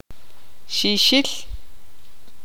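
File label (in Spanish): "grillo", Tz'utujil, female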